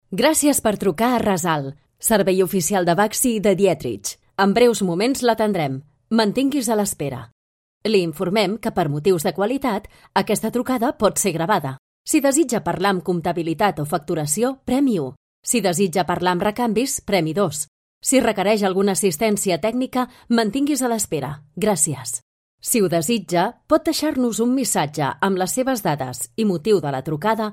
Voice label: catalan ivr